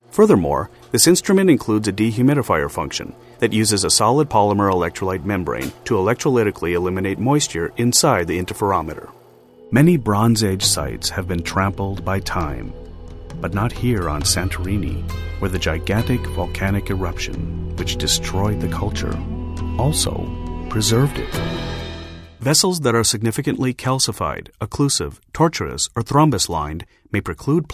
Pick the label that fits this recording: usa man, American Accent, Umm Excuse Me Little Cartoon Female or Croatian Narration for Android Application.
usa man